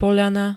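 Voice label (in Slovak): "poľana", Slovak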